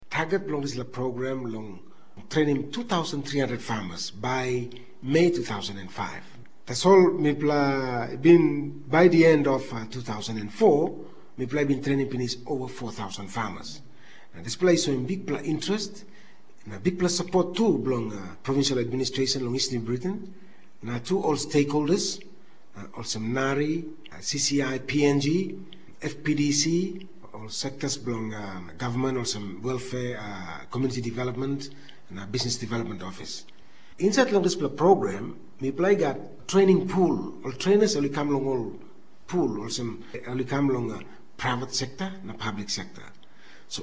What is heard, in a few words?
Tok Pisin; Mama Graun